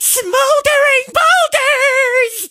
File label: death vo